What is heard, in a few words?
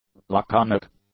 "laconic", english; adjectives